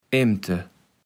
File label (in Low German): "Emte", kaevels platt